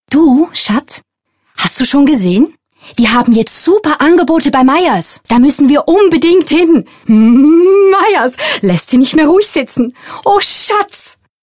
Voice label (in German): Radio-Spot